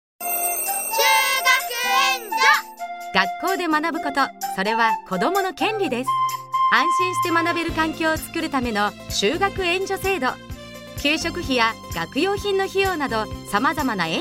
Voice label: radio cm